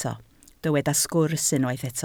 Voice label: "sgwrs", Welsh